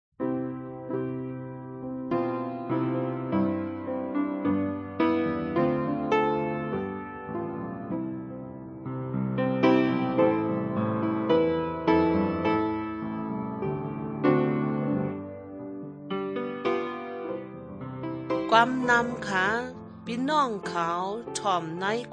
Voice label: good news shan eastern, drama